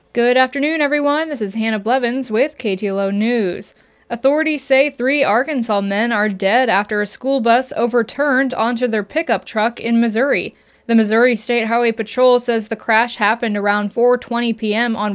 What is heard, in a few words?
newscast